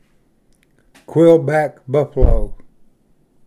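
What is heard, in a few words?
"quillback buffalo sucker", English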